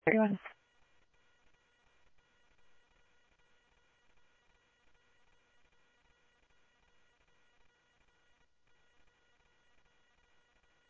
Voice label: Mesa Simulcast, MESA PD, scanner audio